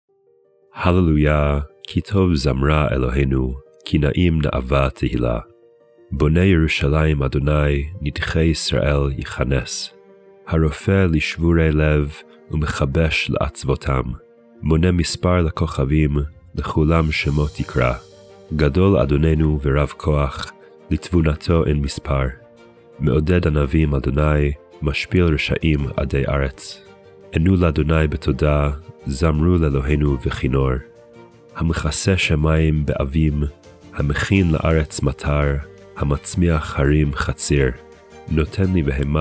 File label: poetry and prayer psalm hebrew